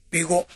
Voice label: "bego", minamiyamagata